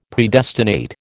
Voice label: "Predestinate", American